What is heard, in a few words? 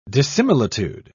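"dissimilitude", British English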